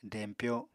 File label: "dempyo", apatani